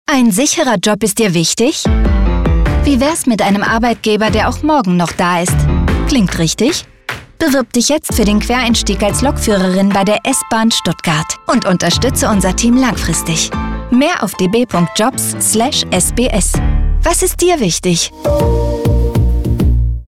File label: german commercial